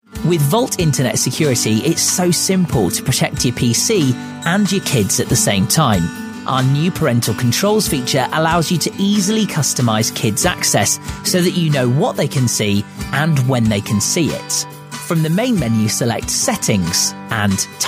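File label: elearning, male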